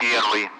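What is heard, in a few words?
Speech, Russian; Allied Flight; DCS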